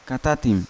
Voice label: "katatim", Yawa; cockroach; unat

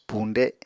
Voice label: "puñde", Apatani

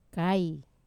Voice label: "kai", Wamesa; papeda spoon

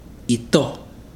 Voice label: "impotent", Ibibio

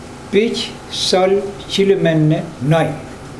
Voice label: these three black pigs of mine, mokilese

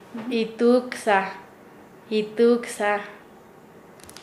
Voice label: "adivino", Nasa Yuwe; paez

